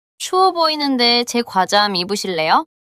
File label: neutral